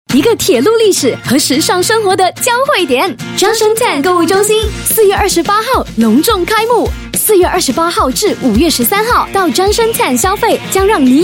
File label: Mandarin, China Female; Junction10